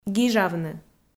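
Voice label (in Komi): "гижавны", Komi-Zyrian, female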